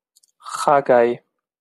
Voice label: chagai pronounce

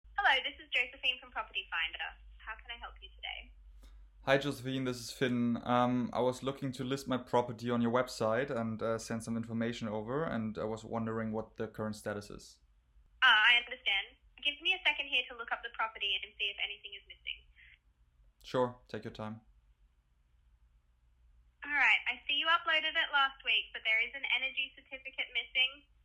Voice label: InboundSales English

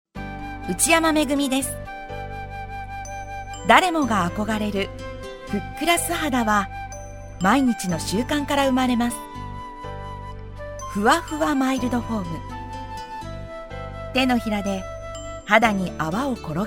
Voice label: sample mild

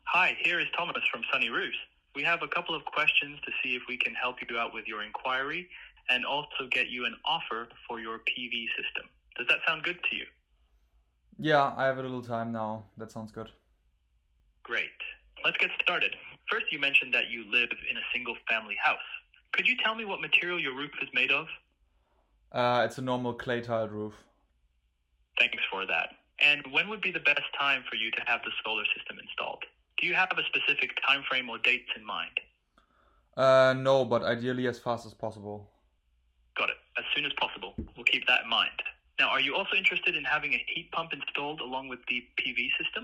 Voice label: Prequalification English

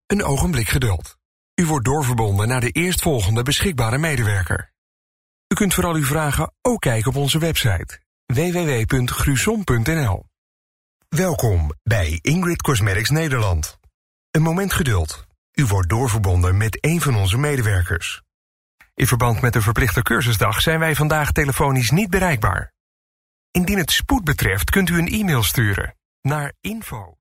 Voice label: IVR

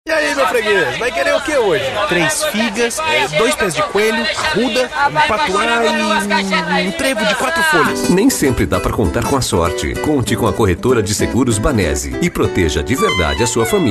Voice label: Spot; BANESE

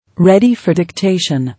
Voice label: ready for dictation, en-US; talktyper

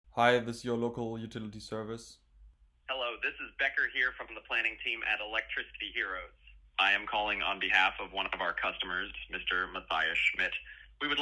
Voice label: Data Inquiry English

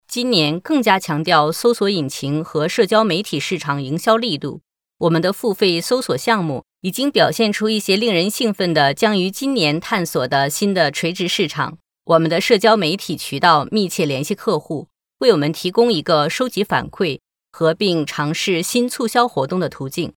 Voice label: F Chinese Mandarin Business Narration

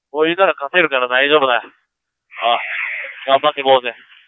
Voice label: race voice; toyota; superformula

